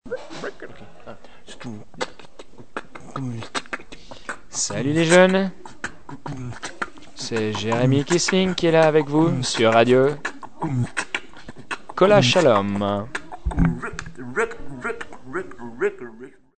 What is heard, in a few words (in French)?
annonce radio